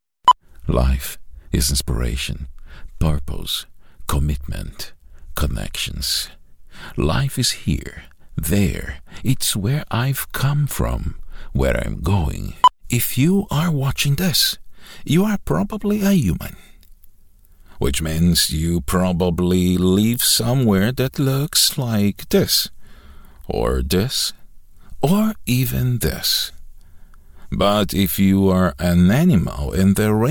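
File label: Brazilian Portuguese, male, accented English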